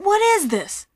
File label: "what is this", voice; questions